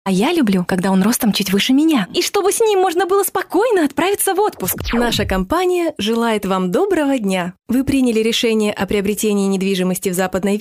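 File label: Russian Russia female sales; russian voice over talent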